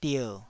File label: "dier3", Taiwanese